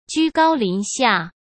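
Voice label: "居高临下", Mandarin Chinese; hsk; chinese-flashcards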